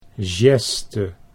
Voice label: "geste", Dutch